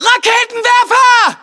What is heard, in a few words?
US threat rpg, battlechatter